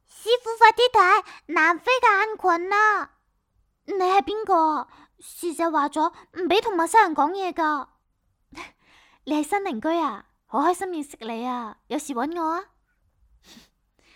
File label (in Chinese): 粤语